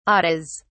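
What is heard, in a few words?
"ates", English, uk British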